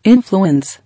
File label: "Influence", English